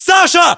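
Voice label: "sasha", battlechatter, Russian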